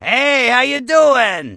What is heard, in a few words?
Goblin Male Gruff NPC Greeting